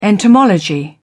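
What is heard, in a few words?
"entomology", English, British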